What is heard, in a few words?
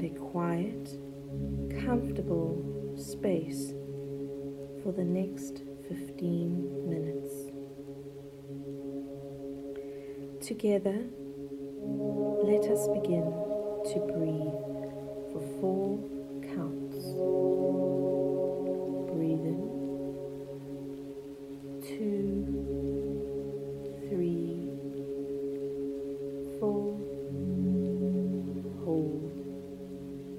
Adults Sleep Meditation